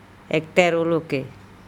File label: EktEroleke send the goods, andamanese